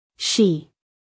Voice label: "she", US, female